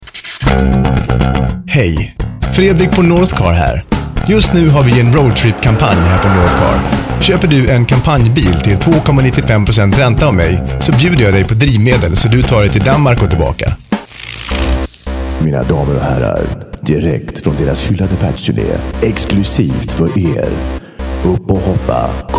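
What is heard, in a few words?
swedish male commercial; voice demos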